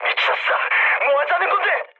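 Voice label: Korean player killed ally mood med, speech; War Thunder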